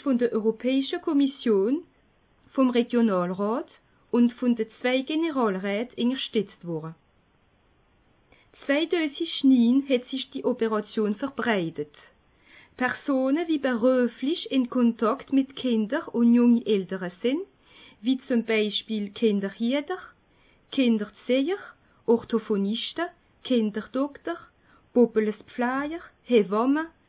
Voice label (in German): elsassisch; witerschgann